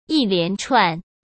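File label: "一连串", Mandarin Chinese; hsk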